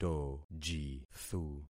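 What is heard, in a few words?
"tojithu", concatenated syllables; Affixing experiment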